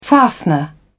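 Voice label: "fastener", British English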